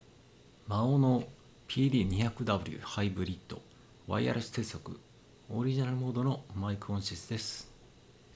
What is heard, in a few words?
maono pd200w hybrid Wireless microphone sound quality